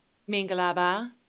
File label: Burmese, hello